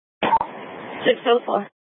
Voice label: Valley Metro Public Transit System Light Rail Security, scanner